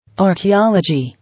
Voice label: "Archaeology", English

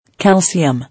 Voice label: "Calcium", English

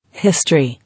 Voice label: "History", English

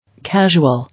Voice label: "Casual", English

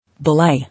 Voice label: "Belie", English

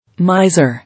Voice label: "Miser", English

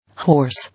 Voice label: "Horse", English

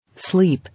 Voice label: "Sleep", English

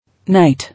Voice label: "Night", English

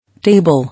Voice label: "Table", English

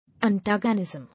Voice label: "Antagonism", English

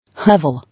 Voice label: "Hovel", English

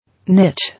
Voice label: "Niche", English

